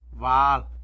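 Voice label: "bullet", Tlacolula Zapotec; bal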